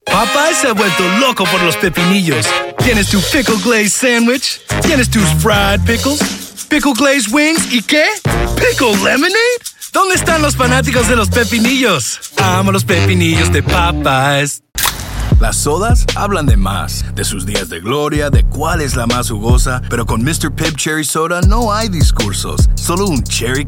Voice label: voiceover animation; New York